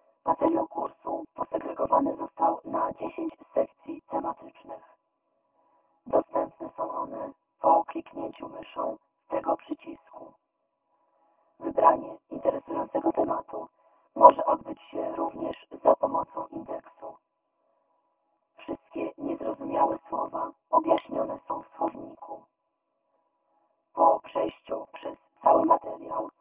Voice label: out vocoder; Analiza i kompresja mowy